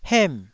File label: "hem4", taiwanese